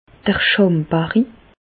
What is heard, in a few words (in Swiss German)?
"schambarri", Bas Rhin; medecine; pubis